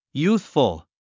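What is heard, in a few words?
"youthful", English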